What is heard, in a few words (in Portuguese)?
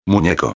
"muneco", espanhol; palavra do dia